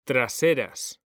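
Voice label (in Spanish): "TRASERAS", Spanish, male; mekanta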